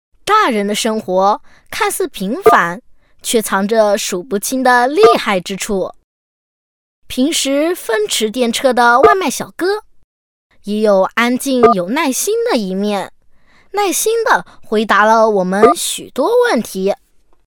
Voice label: 女 真童 男童; 真童配音; vivo男童

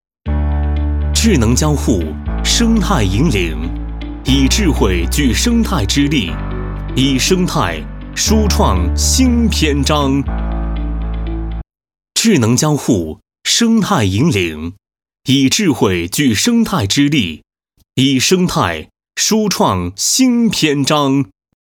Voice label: 男 科技; 海尔

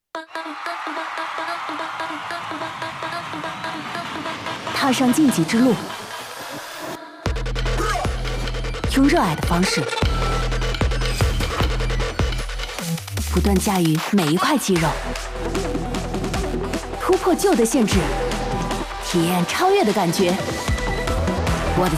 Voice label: 女 国 大音 个性酷飒; 广汽本田

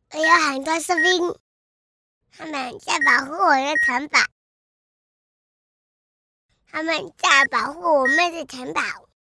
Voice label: 男真童; 保护; 真童配音

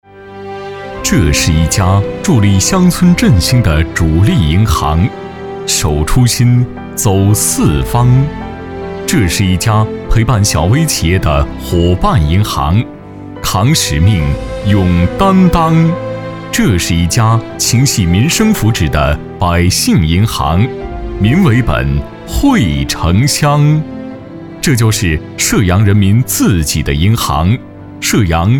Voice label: 男 宣传片; 银行宣传样音; 射阳农商银行宣传片

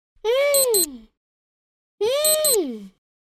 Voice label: 男 语气词 男真童; 真童配音

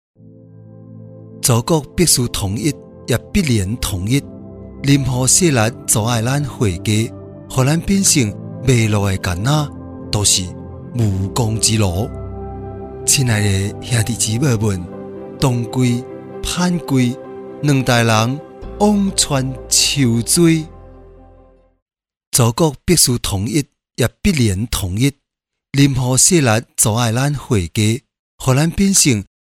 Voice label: 闽南语 男 闽南语; 方言配音; 盼归

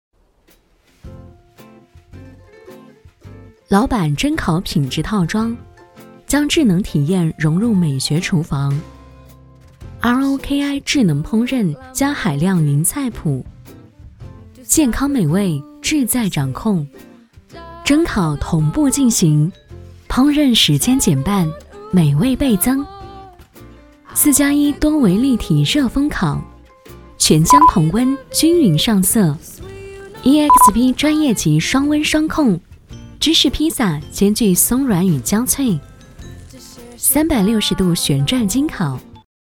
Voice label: 女 广告 蒸烤; 老板蒸烤

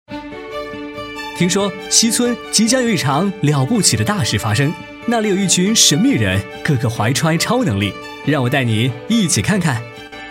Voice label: 男 大音 素人讲述; 素人风格男声

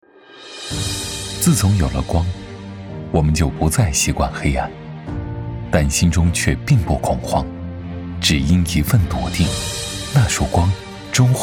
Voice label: 男 形象宣传 电力 走心 力量; 走心企业形象片样音

